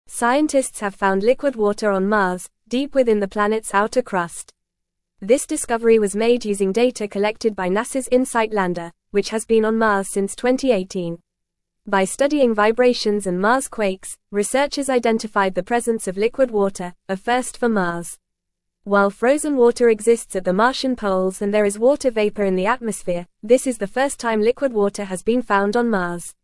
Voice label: English Upper Intermediate FAST Reading; Discovery of Liquid Water Reservoir on Mars Revealed